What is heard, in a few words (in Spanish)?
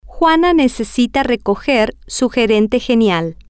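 necesita recoger su gerente, Spanish, female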